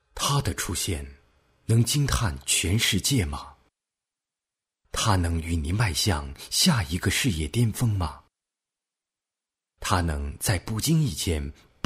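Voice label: boy car, Chinese, advert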